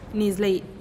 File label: "nislee", holy water